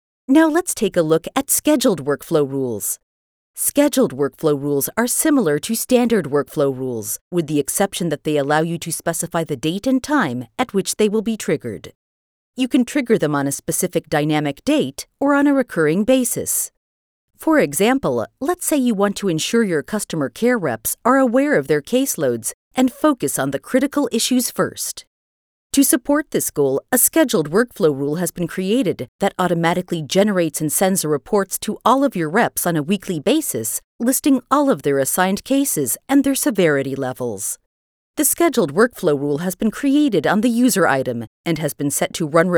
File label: E Learning